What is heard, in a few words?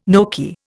"gnocchi", Indian